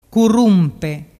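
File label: "currumpe", Vernazzese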